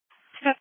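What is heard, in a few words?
Police Patrol (Dispatch)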